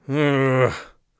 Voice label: irritated, Mage Voice Pack